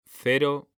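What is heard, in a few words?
"CERO", Spanish, male; mekanta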